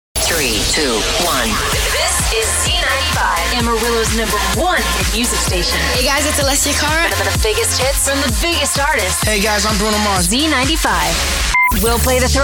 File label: Radio Imaging, femalevoices